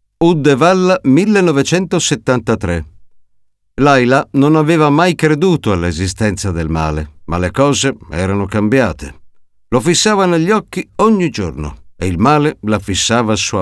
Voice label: narrativa

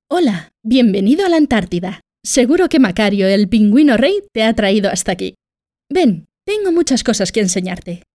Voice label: VIDEOJUEGOS VOZ FEMENINA CASTILIAN

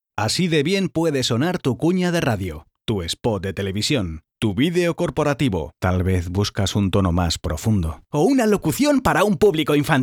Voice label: Locutor

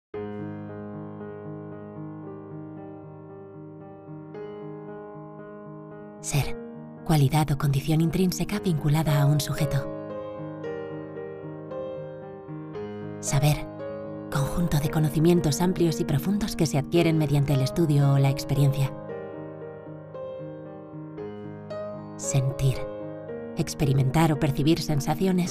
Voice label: CALMADO

